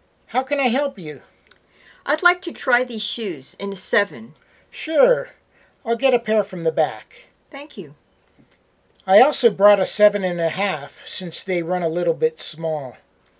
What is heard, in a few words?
Natural Dialog Complete